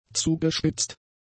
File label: "zugespitzt", German; adjectives